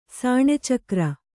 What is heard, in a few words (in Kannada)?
"ಸಾಣೆಚಕ್ರ", kannada